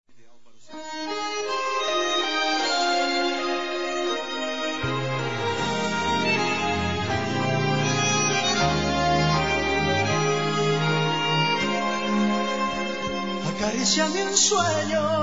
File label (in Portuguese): Tele Mensagem Aniversario de Casamento F; COMEMORATIVAS